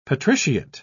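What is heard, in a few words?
"patriciate", British English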